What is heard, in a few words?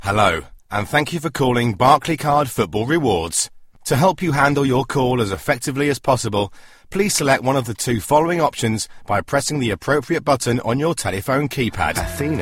On Hold